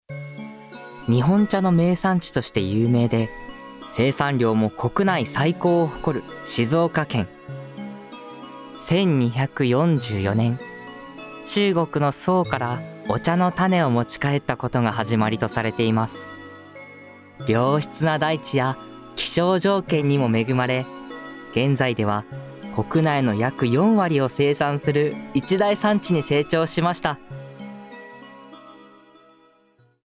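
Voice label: narration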